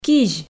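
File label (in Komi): "кизь", Komi, female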